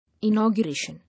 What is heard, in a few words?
"Inauguration", English